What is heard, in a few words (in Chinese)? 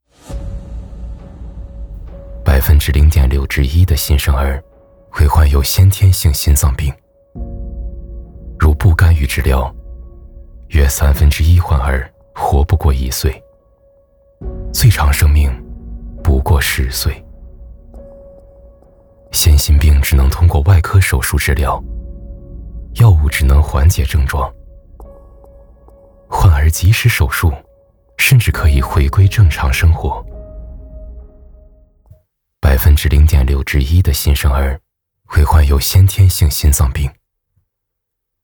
走心广告公益